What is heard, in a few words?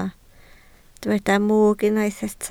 "mwg", Welsh